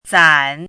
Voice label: "zǎn", hanzi